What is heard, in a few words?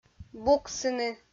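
"буксыны", Komi, female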